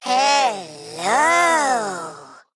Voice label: Male Mech WOW; en; AnnoyO

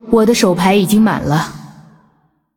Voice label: Female Ghost ERROR HAND FULL, zh

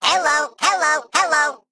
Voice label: Male Mech Greetings; en; AnnoyO

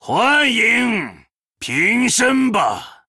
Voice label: Male Troll Greetings; zh

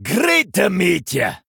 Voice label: Male Dwarf Greetings; en

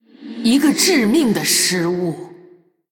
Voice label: Female Human Oops, zh; JainaDeathknight

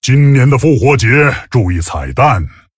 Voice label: Male Tauren HAPPY NOBLEGARDEN; zh

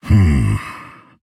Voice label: Male Tauren Thinking

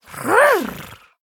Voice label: Female Murloc Attack; en

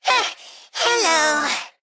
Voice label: Male Mech; en; AnnoyO; ERROR I ATTACKED

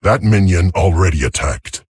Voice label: Male Tauren; HERO; ERROR MINION ATTACKED

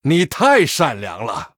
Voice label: Male Human Thanks, zh

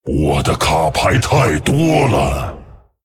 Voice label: Male Dragon ERROR HAND FULL; 手牌十张; 我的卡牌太多了！; 风暴巨龙迦拉克隆（英雄）; Hand already full; I have too many cards.; zh

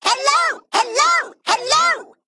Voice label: Male Mech, MIRROR GREETINGS; en; AnnoyO

